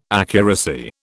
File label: "accuracy", British